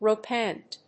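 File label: "rope-end", English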